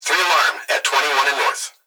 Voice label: Emergency Human Young Male Voice Fire Dispatch Three Alarm At 21 And North; emergency effects